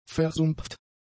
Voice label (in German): "versumpft", German; adjectives